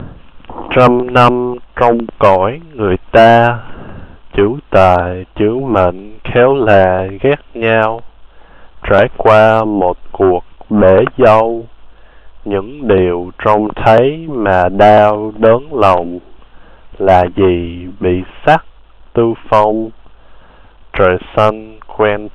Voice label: recite; Truyen Kieu

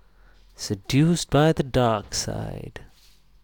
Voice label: boy sixth dialogue

